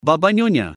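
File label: "Baba Nyonya", Malay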